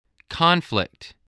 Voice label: "conflict", english

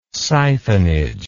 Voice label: "siphonage", words

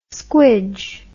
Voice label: "squidge", English

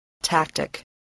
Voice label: "tactic", English

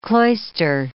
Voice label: "cloister", English

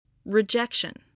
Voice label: "rejection", spelling voice

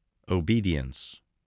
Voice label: "obedience", voice, spelling

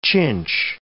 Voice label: "chinch", English

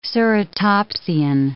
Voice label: "ceratopsian", English

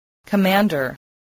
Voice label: "commander", voice

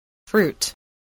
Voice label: "fruit", English voice, spelling

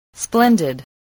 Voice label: "splendid", voice

